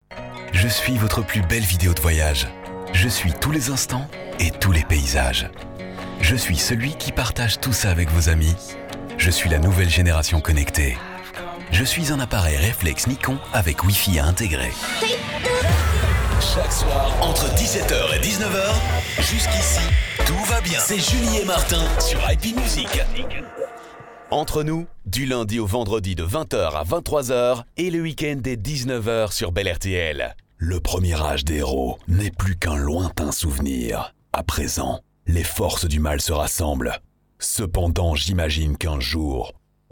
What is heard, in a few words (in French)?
Voix M